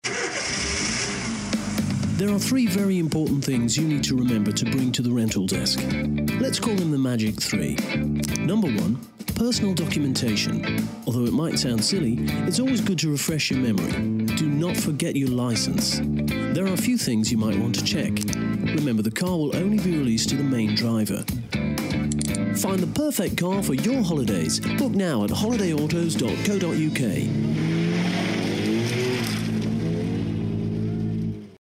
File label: english irish automotive